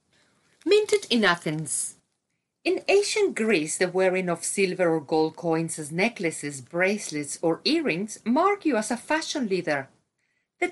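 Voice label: Latin American Spanish, accented English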